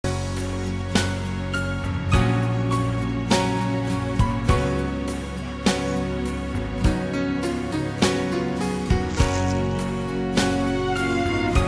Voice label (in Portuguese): distante dia das mães, voz masculina; dia das maes para mae distante voz masculina